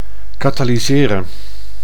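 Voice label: "katalyseren", Dutch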